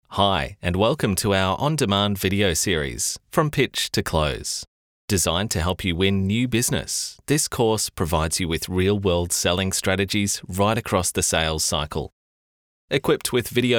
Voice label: eLearning sample